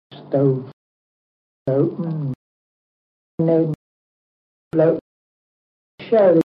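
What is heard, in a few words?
kent warren st male goat, accents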